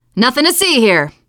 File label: area clear, teen girl; player; survivor; voice